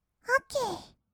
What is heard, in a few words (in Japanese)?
"オッケー", kawaii voice; 音ゲー